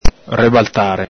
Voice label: "rebaltare", Venetian

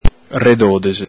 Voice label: "redodexe", Venetian

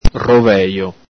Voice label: "rovejo", Venetian

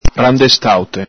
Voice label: "randestaute", Venetian

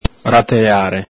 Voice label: "ratelare", Venetian

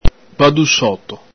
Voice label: "badusoto", Veneto

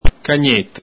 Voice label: "cagneto", Veneto

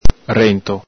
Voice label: "rento", Venetian

